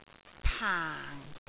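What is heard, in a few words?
"paang4", Cantonese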